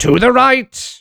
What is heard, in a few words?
head right, voice commands; Civilian